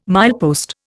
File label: "milepost", Indian